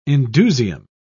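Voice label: "indusium", British English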